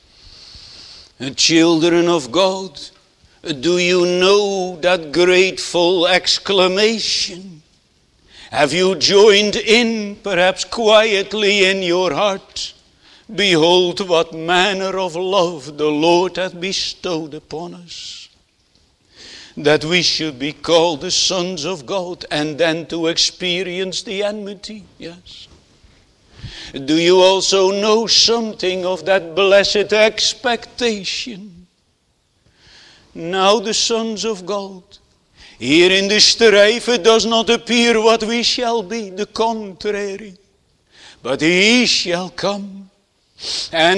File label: Sermon Continued; 1 john 3 1 3